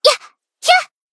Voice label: Battle Shout; Hifumi